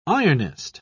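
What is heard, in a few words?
"ironist", British English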